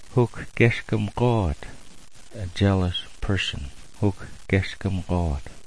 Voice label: "hukgesgm goot", smalgyax

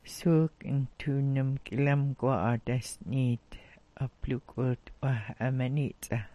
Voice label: "'wahamaniits'a", smalgyax

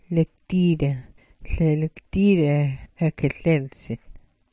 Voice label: "lakwdiidi", smalgyax

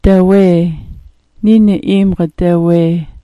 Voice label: "dawee", smalgyax

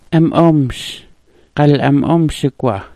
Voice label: "am'oms", smalgyax

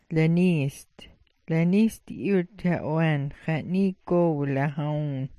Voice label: "laniist", smalgyax

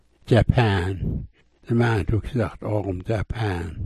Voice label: "dzapan", smalgyax

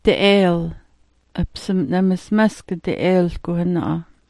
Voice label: "da'eel", smalgyax

